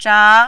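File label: "zha2", mandarin, rising tone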